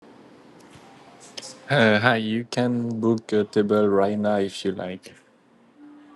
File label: voice message